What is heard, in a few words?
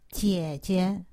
"jiejie", Chinese; Survival Chinese